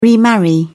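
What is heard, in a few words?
"remarry", British English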